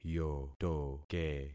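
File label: "yotoke", concatenated syllables; Affixing experiment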